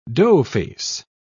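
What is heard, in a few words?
"doughface", British English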